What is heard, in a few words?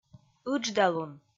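"ыдждалун", Komi, female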